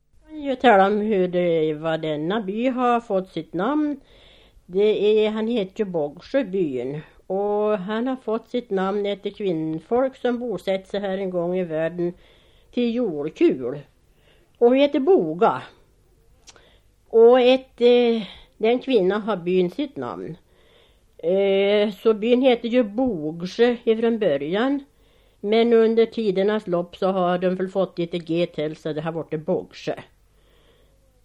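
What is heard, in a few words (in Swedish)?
Sundsjö Jämtland, dialekter; Folkminnen; Svenska sagor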